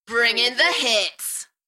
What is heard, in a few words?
UK female, Enthusiastic, voice drop; beanstalk audio